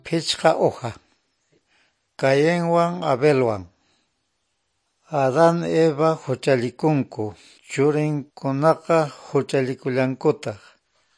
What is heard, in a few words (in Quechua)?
good news quechua norte de bolivia apolo; quechua bible